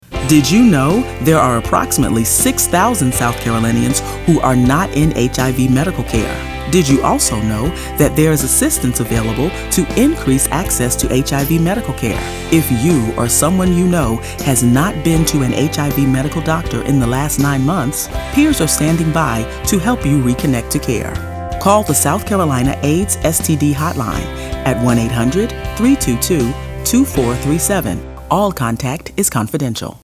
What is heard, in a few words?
English Female